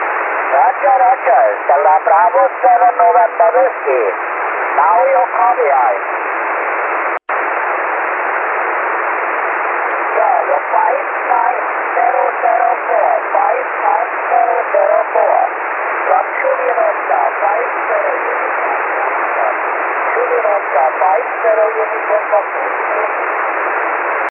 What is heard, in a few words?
1296 SSB; IARU 2014